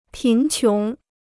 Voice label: "贫穷", Chinese

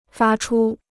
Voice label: "发出", Chinese

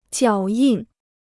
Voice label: "脚印", Chinese

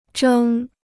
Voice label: "蒸", Chinese

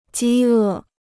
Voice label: "饥饿", Chinese

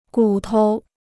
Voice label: "骨头", Chinese

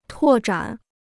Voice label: "拓展", Chinese

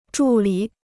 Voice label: "助理", Chinese

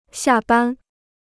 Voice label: "下班", Chinese

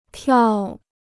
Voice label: "跳", Chinese

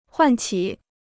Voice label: "唤起", Chinese

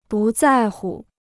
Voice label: "不在乎", Chinese; bù zài hu; not to care

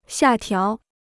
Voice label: "下调", Chinese

